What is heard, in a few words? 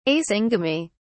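"asyngamy", British English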